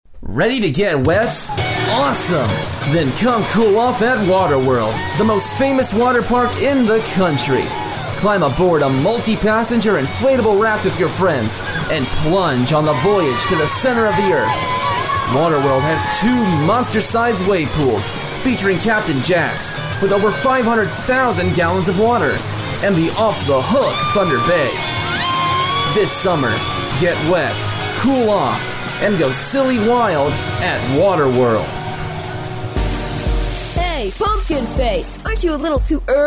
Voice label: voiceover